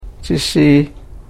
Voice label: "chishiih", Karuk